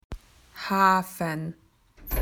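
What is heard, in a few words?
"gate", Australian English; PictureDictionary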